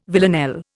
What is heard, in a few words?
"villanelle", Indian